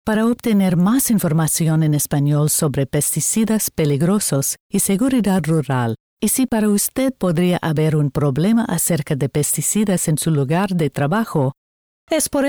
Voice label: Spanish

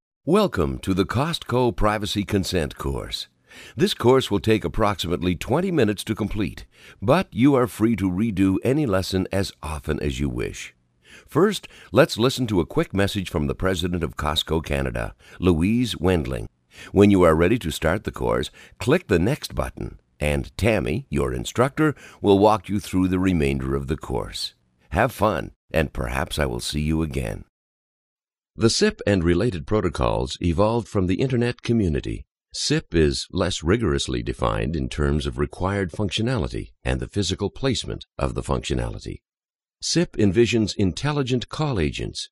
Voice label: E-Learning

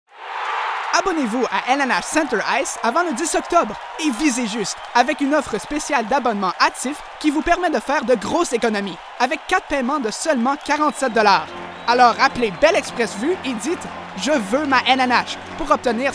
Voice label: Commercial FR

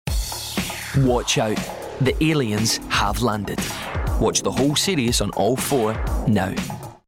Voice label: Promo Sample

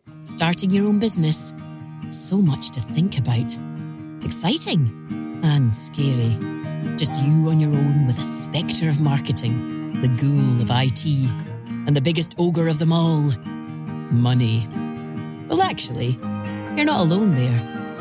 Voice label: Bank of Scotland Commercial

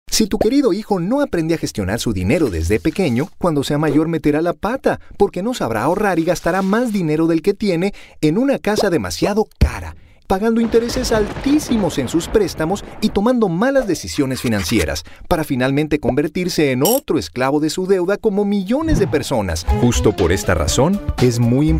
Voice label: Web Explainers

